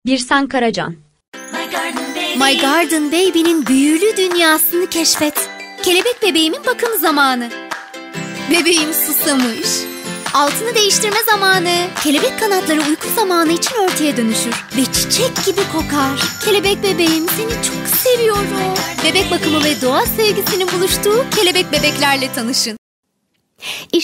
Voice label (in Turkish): turkce kadin